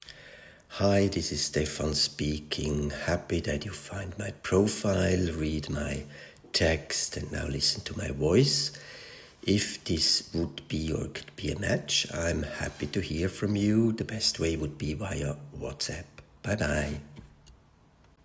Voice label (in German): Escort Mann Audiovorstellung englisch